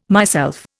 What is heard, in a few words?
"myself", Indian